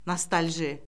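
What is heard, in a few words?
"nostalji", Azerbaijani; Orfoqrafiya Lüğəti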